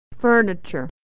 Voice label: "furniture", pronunciation